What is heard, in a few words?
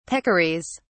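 "peccaries", British English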